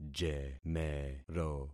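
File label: "jemero", concatenated syllables; Affixing experiment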